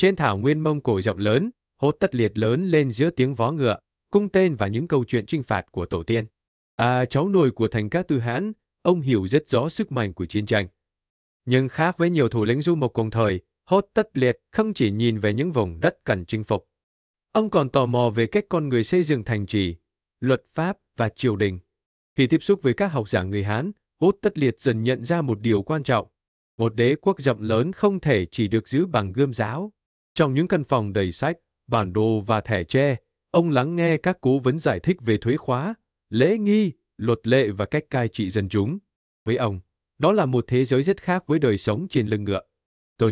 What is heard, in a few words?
narration